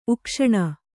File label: "ಉಕ್ಷಣ", Kannada